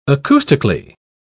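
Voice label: "acoustically", British English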